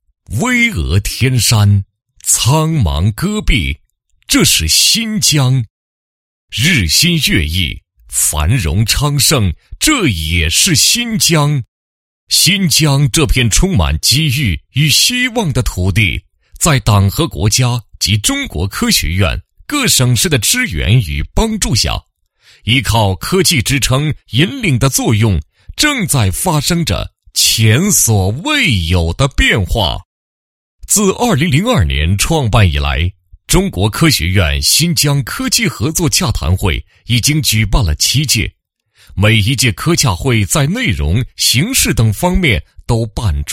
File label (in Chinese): 男 专题 纪实; 科技合作洽谈会历程纪实